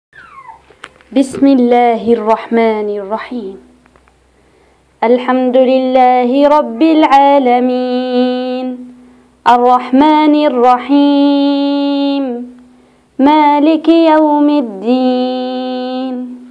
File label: voice, recitation